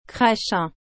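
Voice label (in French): "crachin", French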